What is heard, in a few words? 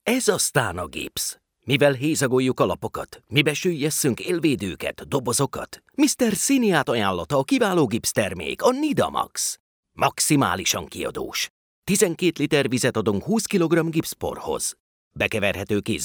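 Hungarian, male, standard; lektoring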